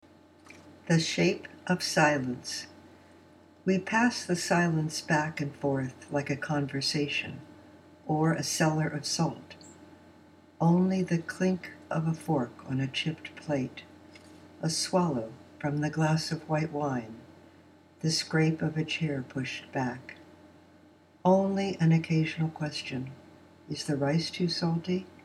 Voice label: Female Basic